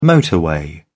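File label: "motorway", British English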